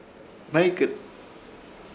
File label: "Hmeykɨt", Ishir Chamacoco; brazo